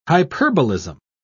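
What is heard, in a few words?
"hyperbolism", British English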